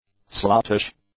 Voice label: "sluttish", English; adjectives